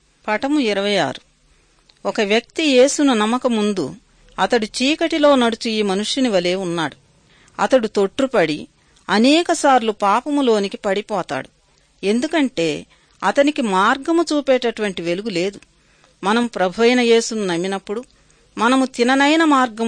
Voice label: good news telugu

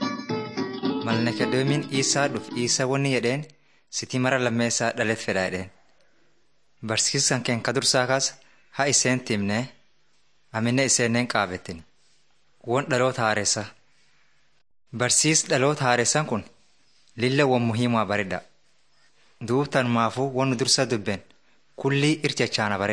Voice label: teaching tape orma; bible lessons

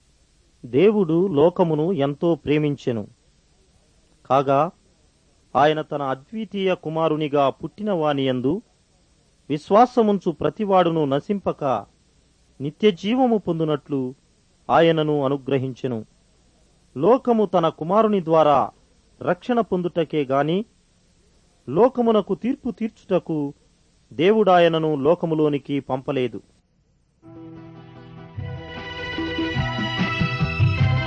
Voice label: telugu; portrait of jesus

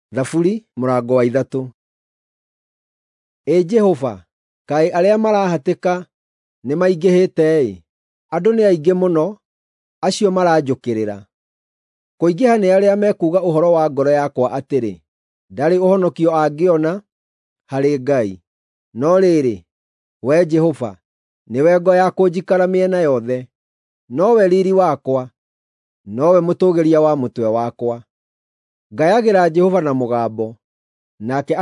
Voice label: psalms, gikuyu drama

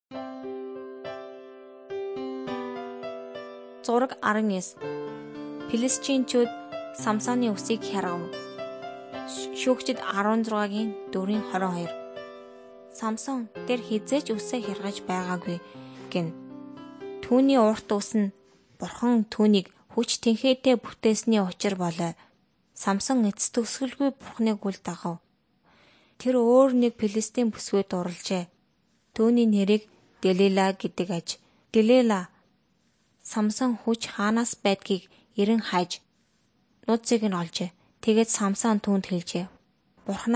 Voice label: mongolian

